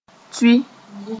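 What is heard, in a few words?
"tʋɩ", Mooré; spread sth. out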